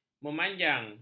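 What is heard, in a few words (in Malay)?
"memanjang", Malay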